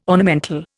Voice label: "ornamental", Indian